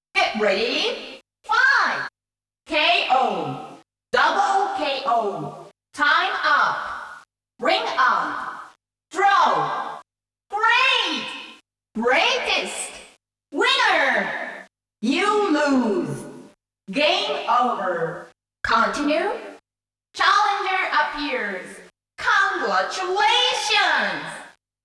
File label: SYSTEM VOICE; Dead or Alive